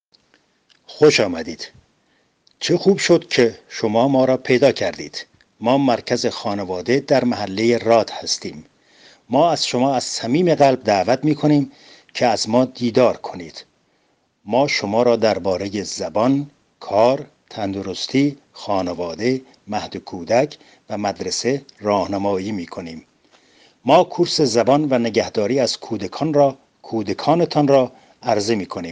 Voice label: farsi; rather familienzentrum